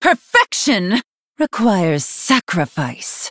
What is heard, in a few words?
Female Night Elf Attack; Azshara